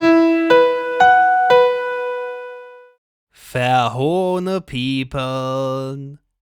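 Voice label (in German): "verhohnepiepeln", German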